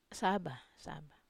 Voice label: "saba", Wamesa